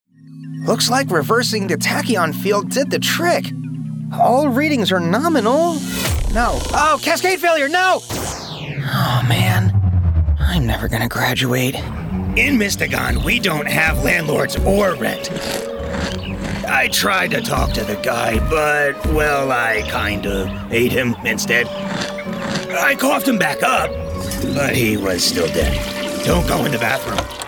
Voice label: Animation

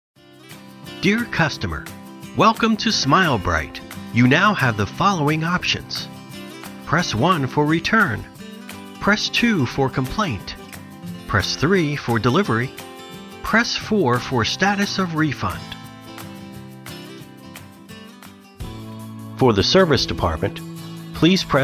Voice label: phone IVR

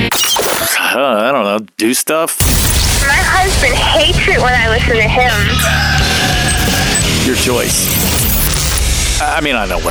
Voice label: Rock Imaging

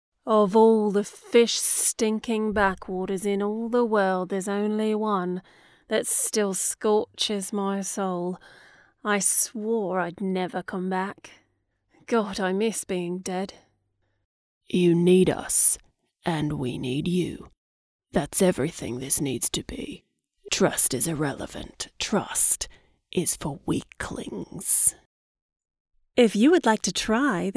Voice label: character

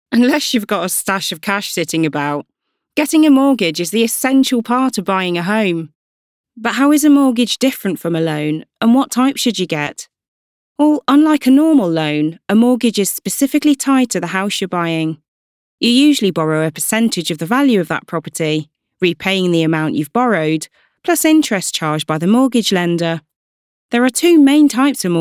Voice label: explainer

